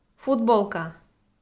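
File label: "футболка", Komi, female; kpv audio dict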